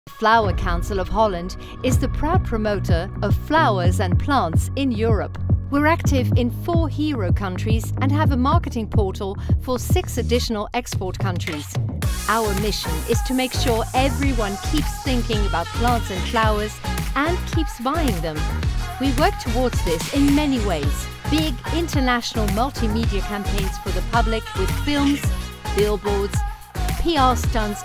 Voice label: ENG UK; Bloemenbureau